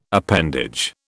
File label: "appendage", British